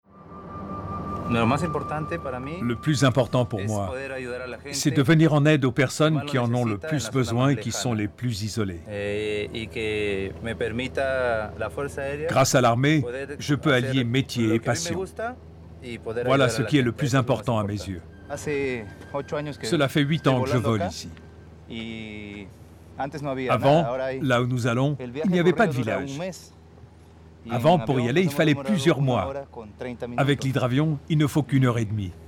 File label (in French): Amazone Voice over VF; VOIX OFF BILINGUE